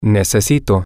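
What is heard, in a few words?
I need, Spanish, male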